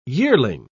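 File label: "yearling", British English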